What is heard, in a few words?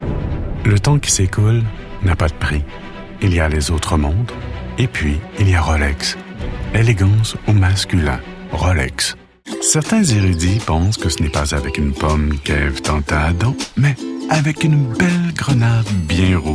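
Commercial French Canadian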